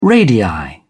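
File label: "radii", British English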